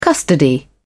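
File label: "custody", British English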